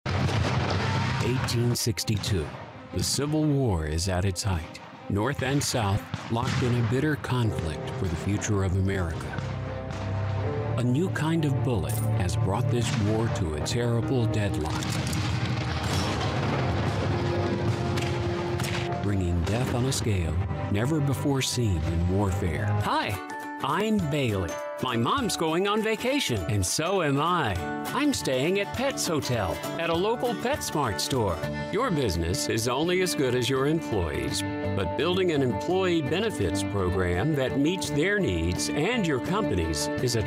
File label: Audio Narration